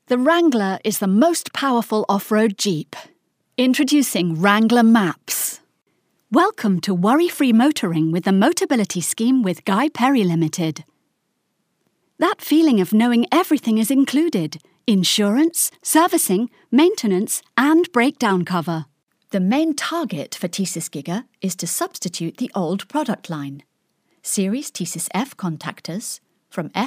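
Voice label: English female; lektoring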